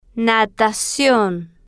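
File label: "na ta cion", slow, female, Spanish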